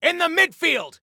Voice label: Enemy In Midfield, Soldier Male

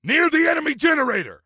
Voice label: Warn Enemy Near Enemy Generator, Soldier Male; Soldier Male 03

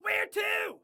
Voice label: Need Where To, Excited Guy

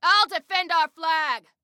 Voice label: Self Defend Flag, Female

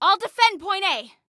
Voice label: ILL DEFEND, Female; T2 Female 04

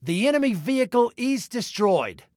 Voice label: Enemy Vehicle, Male

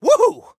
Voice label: "Woohoo", Soldier Male; Soldier Male 01

